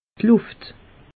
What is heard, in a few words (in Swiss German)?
"luft", alsace; meteo